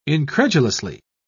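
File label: "incredulously", English, British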